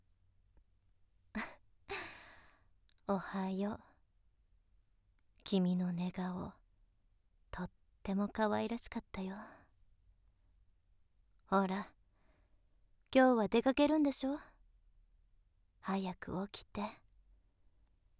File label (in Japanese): ヒロインによる目覚まし音声